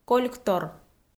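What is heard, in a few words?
"кольктор", Komi, female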